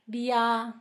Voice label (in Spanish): chipiloso, Zapoteco, San Pedro Mártir; Adjetivos; INALI